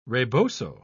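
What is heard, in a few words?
"rebozo", British English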